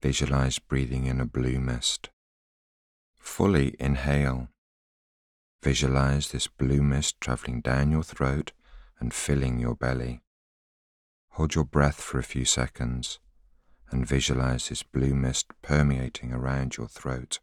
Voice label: voiceover